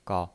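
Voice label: "gao", Mandarin Chinese, neutral tone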